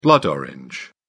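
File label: "blood orange", British English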